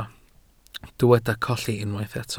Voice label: "colli", Welsh